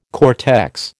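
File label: "cortex", American English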